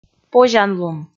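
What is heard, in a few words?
"позянлун", Komi, female; kpv audio dict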